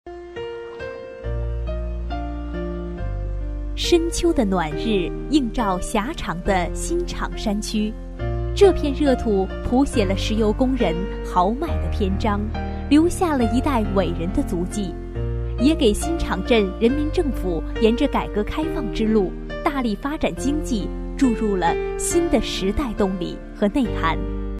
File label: Mandarin female